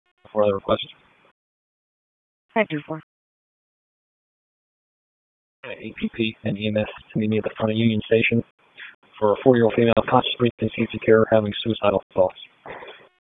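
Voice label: Raleigh Police Southeast District Dispatch; wake; p25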